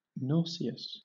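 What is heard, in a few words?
"nauseous", English, British accent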